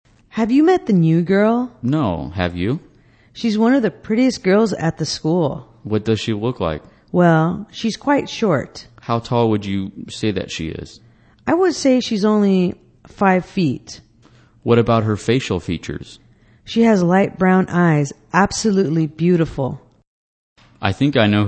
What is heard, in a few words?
english conversation Describing People